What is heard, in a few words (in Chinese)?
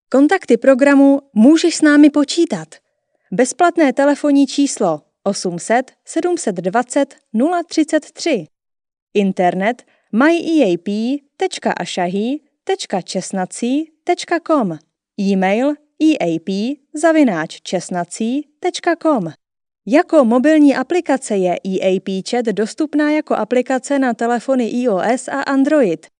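捷克语女_外语_小语种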